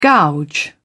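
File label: "gouge", English, British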